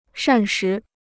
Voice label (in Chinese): "膳食", Chinese